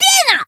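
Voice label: damaged, Japanese; morgana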